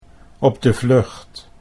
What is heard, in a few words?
"op de vlucht", Dutch